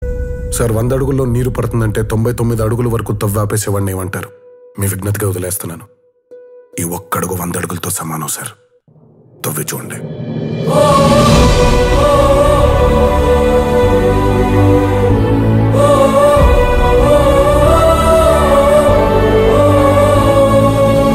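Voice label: Dialogue, Telugu; jr Ntr; Okka Adugu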